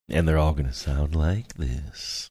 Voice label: and they're all gonna sound like this quiet sultry